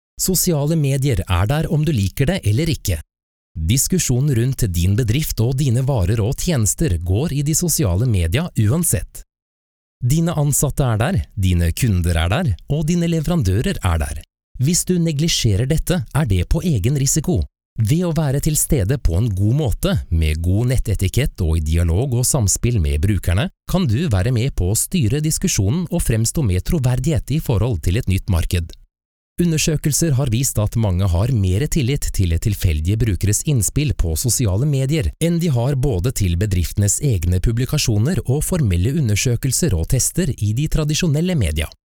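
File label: norwegian male e-learning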